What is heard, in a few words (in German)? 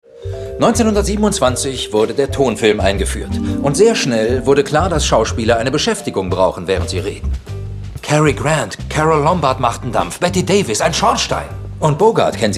synchron